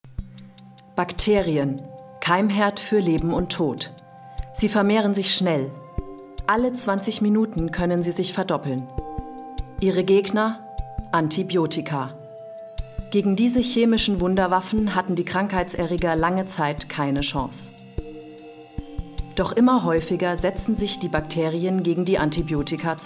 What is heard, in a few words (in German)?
Doku